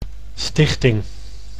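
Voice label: "stichting", Dutch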